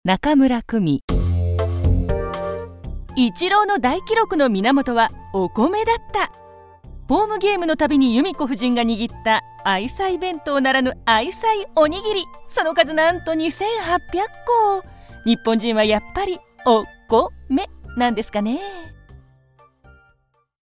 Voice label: female tv program

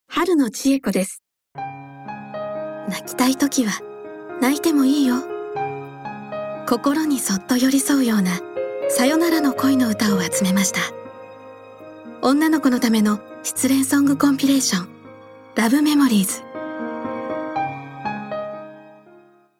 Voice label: female spot musician

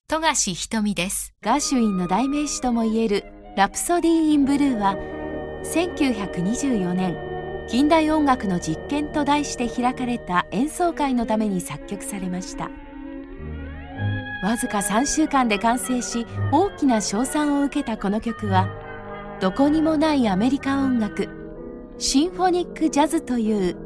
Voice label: female tv program

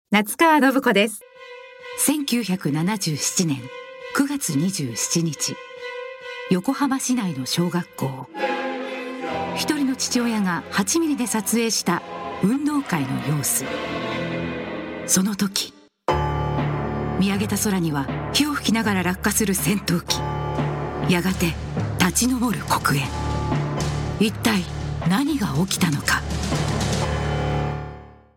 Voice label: female news

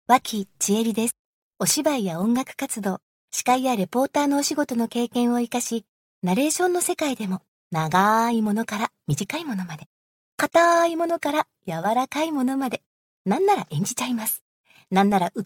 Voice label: female self-introduction

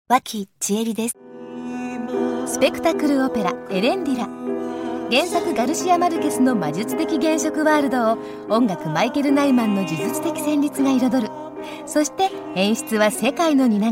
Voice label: female tv spot

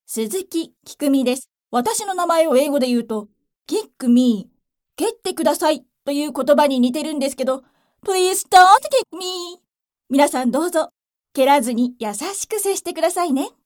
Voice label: female introduction

